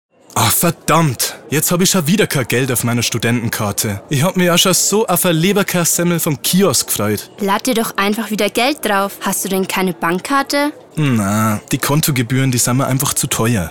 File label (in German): Werbung Sparkasse